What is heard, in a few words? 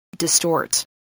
"distort", English